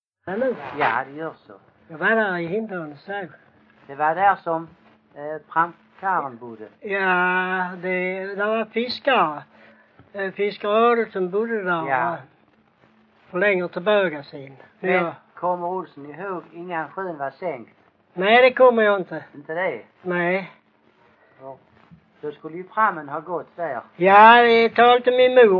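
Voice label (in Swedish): Bosjökloster Skåne, dialekter; Folkminnen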